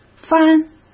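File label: "翻", Mandarin Chinese; hsk; chinese-flashcards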